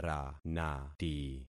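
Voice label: "ranati", concatenated syllables; Affixing experiment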